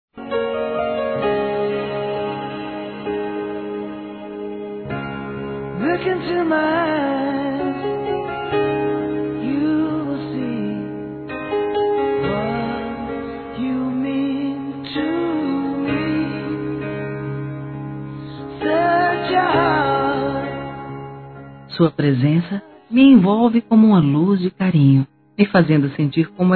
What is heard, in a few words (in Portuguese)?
Desmarcar Encontro Feminino; Telemensagens; Sensuais